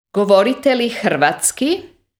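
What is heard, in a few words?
Croatian, male, Sprechen Sie Kroatisch?; Govorite li hrvatski?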